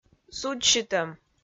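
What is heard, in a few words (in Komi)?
"судзсьытӧм", Komi, female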